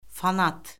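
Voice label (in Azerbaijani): "fanat", Azerbaijani; Orfoqrafiya Lüğəti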